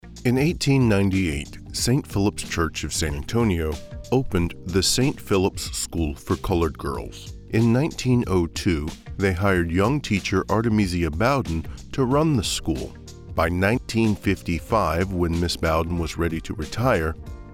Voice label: narration

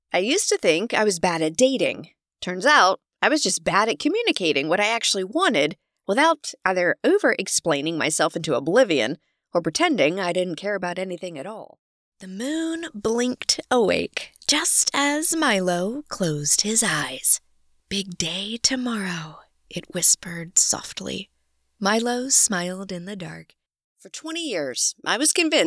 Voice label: Audiobook

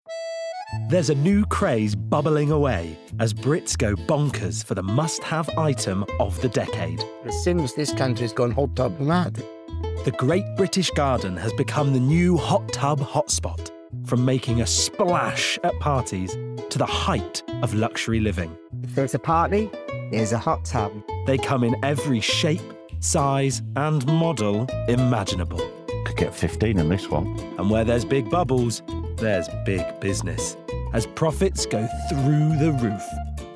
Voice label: Doc, narration; Hot Tub Brits

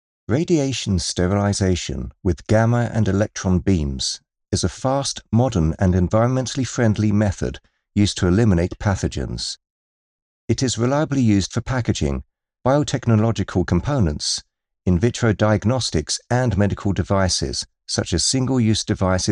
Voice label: british voice over documentary

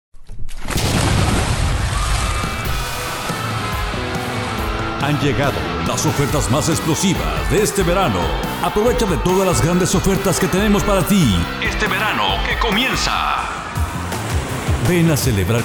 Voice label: Spanish; Spanish - Spain (Castilian)

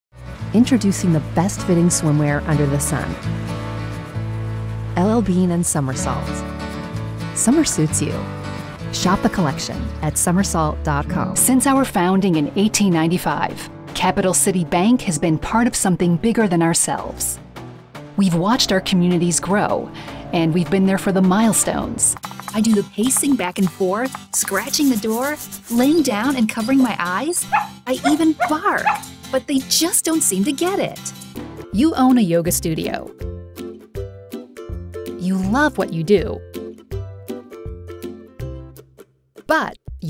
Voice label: Main Voiceover, american voice overs

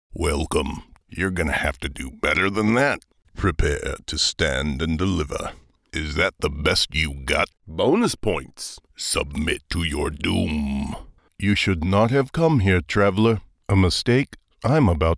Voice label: Animation, character voices

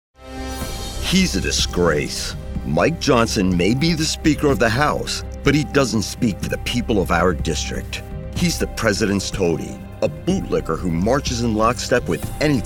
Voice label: VO Political, American voice over

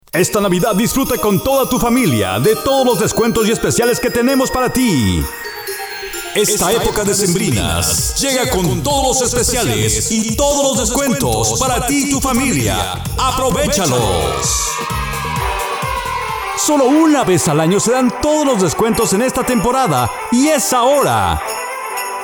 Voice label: Male; Malay

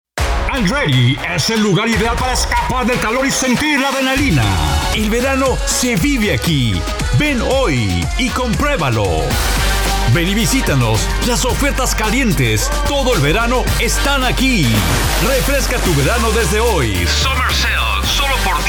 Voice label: Male

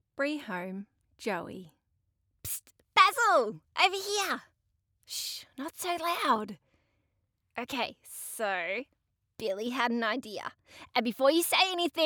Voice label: Character Work, character voices

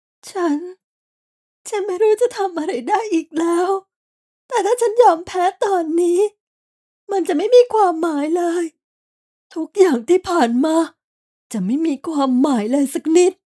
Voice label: Character Emotional

